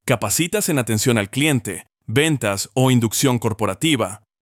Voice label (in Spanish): retail experto loc